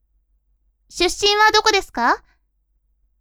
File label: women